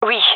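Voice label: affirmation, gendarmerie female; vo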